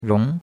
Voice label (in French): "rong2", chinois; Cours-de-Chinois